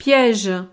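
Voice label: "piege", French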